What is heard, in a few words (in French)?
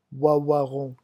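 "ouaouaron", French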